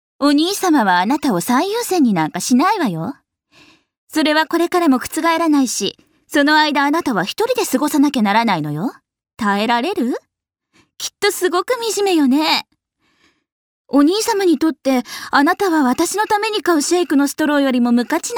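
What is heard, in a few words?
seikaku female dojikko; nenrei; f syozyo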